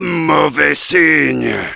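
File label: negative vocalization, French; "Mauvais signe!"; Heavy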